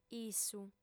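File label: "issu", female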